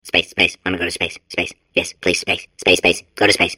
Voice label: babble; portal2